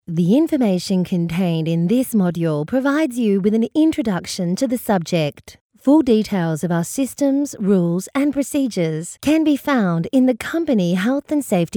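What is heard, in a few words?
Australian English, female, voice over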